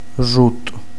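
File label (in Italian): "grutto", Italian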